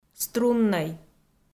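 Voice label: "струннӧй", Komi, female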